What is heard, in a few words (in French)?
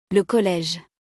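"le Collège", French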